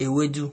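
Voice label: "ewedu", Nigerian Pidgin, male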